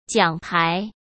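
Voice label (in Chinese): "奖牌", Mandarin; chinese flashcards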